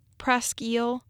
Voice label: "Presque Isle County", Michigan